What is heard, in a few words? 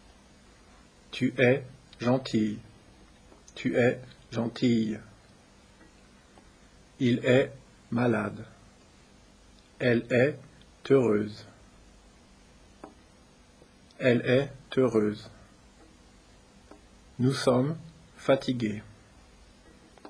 French